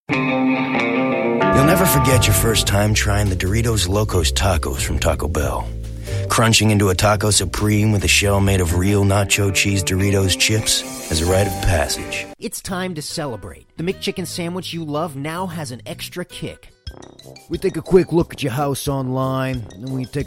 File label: commercial